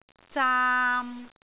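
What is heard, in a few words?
"zaam3", Cantonese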